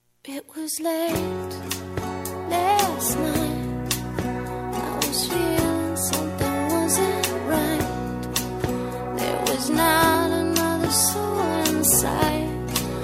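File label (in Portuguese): recon masc, Telemensagens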